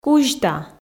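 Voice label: "кузьта", Komi, female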